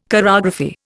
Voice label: "chorography", Indian